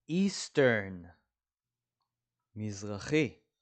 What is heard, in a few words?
"eastern" – "מזרחי"